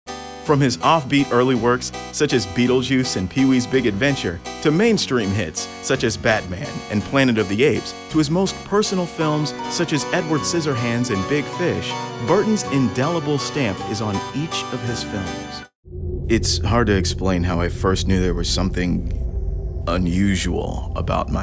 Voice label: Narration